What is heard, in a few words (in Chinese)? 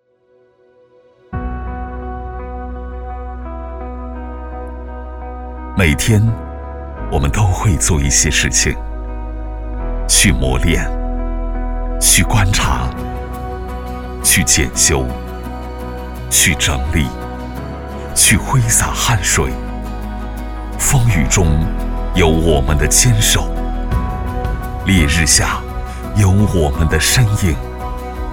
男 微电影